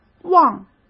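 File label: "忘", Mandarin Chinese; chinese-flashcards; hsk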